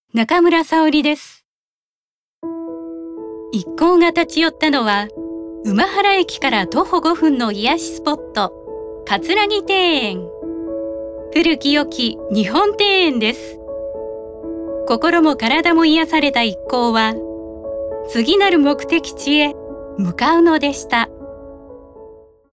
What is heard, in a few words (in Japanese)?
ノーマル